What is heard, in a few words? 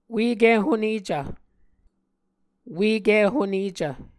"ueki honecv", Muscogee